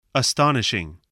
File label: "astonishing", english